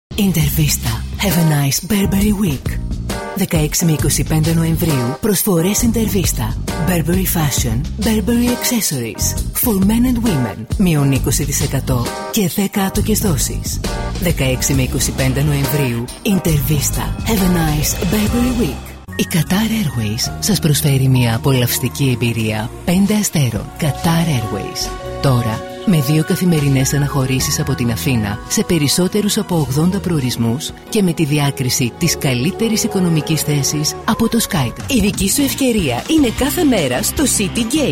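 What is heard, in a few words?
Greek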